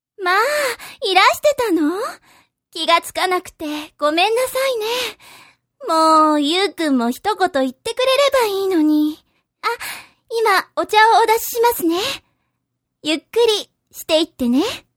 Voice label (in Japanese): zyosei